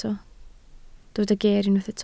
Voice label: "geer", Welsh

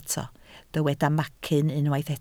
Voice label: "macyn", Welsh

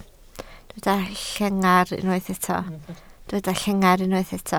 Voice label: "llengar", Welsh

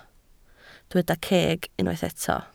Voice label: "ceg", Welsh

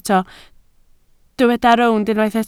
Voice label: "rownd", Welsh

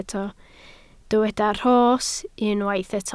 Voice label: "rhos", Welsh

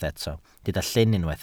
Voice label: "llyn", Welsh

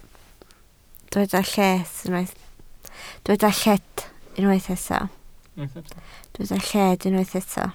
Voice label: "lled", Welsh

